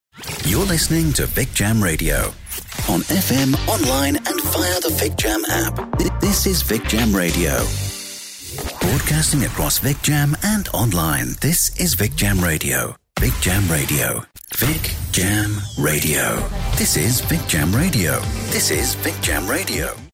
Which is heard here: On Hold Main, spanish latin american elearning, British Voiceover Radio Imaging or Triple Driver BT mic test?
British Voiceover Radio Imaging